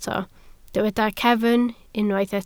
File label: "cefn", Welsh